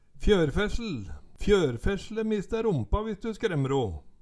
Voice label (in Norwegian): fjørfessel, Numedalsmål